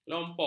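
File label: "kelompok", Malay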